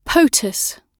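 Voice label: "potus", British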